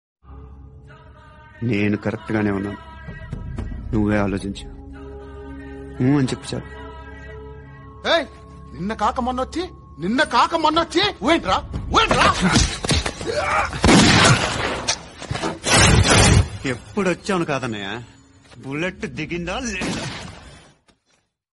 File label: Dialogue Telugu Ringtone; Mahesh Babu; Pokiri